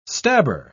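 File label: "stabber", British English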